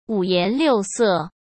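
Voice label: "五颜六色", Mandarin Chinese; hsk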